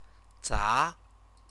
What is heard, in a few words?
"za", Chinese